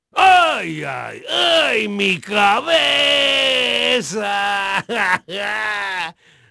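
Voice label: Spanish male, voice; Pirates Of The Caribbean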